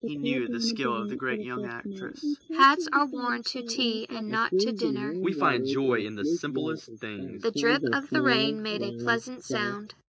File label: anechoic; SiSEC08; SiSEC headgeometry